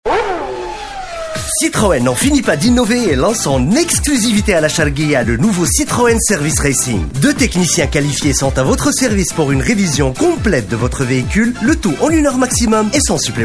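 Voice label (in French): Tunisian French, male, commercial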